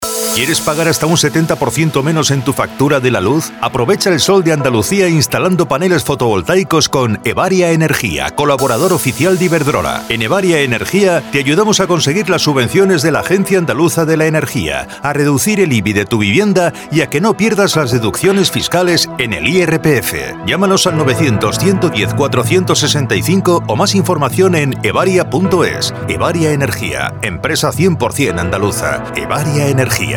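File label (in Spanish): otros España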